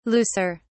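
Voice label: "looser", British English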